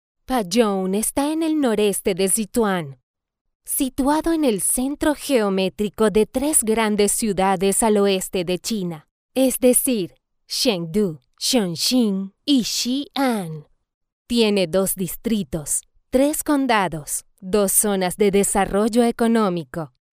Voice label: Spanish female; Bazhong